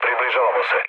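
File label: Serbian near waypoint mood low; War Thunder; speech srb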